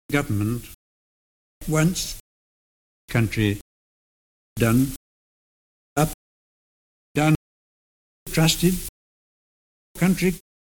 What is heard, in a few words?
rp strut, 19th c rp; 1869; neville chamberlain; 1939